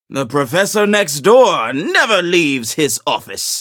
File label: level up, en; Cookie Run